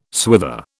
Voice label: "swither", British

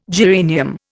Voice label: "geranium", Indian

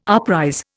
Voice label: "uprise", Indian

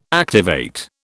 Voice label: "activate", British

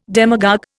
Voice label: "demagogue", Indian

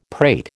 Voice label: "prate", American

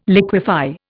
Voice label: "liquefy", Indian

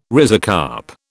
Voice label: "rhizocarp", British

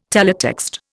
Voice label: "teletext", Indian

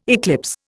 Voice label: "eclipse", Indian

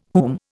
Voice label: "whom", Indian

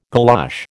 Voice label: "galosh", American

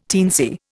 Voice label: "teensy", Indian

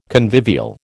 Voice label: "convivial", American

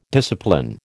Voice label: "discipline", American

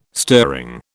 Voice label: "stirring", British

